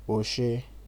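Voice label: "oshey", Nigerian Pidgin, male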